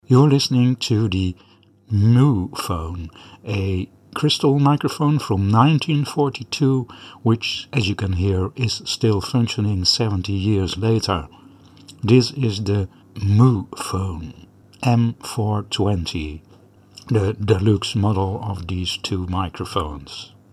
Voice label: Mu Phone M 420 sound UK